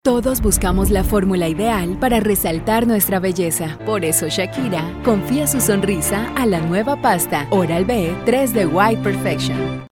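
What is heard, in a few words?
Latin American Spanish, Commercial; GoLocalise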